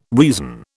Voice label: "reason", British